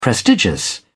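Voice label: "prestigious", British English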